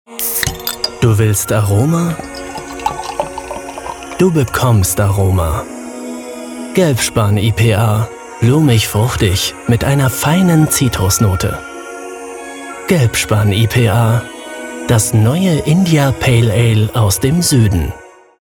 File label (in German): Sprecher Werbung Echter Kerl